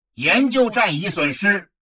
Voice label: advisor notification research station lost, chinese; Stellaris